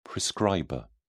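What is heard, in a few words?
"prescriber", British English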